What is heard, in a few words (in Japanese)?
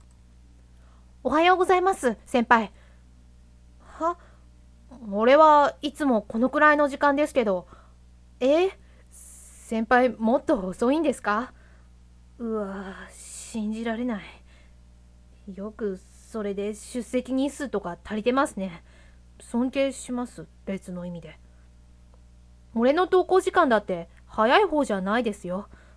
boy; 朝の挨拶06; 後輩「おはようございます、先輩…」; 少年